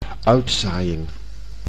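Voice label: "uitzaaiing", Dutch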